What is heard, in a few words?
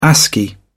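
"ascii", British English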